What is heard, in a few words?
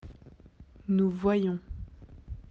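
"voir", French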